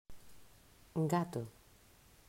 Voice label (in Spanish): pronunciación "Gato"